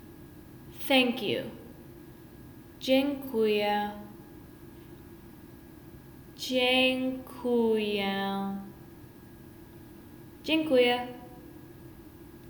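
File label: Polish thank you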